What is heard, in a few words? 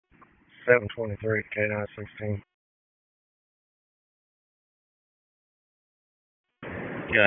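Sheriff's Office Dispatch; WSO DISP 1; wake; p25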